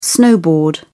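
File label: "snowboard", British English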